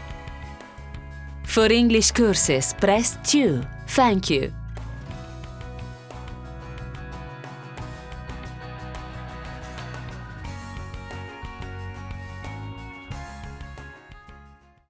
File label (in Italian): segreteria ita eng con base sfumata; centro studi mugello